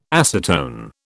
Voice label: "acetone", British

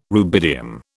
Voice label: "rubidium", British

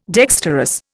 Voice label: "dexterous", Indian

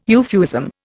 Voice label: "euphuism", Indian

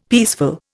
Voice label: "peaceful", Indian; Dictionary

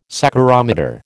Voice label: "saccharometer", American

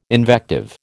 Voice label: "invective", American

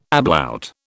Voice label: "ablaut", British

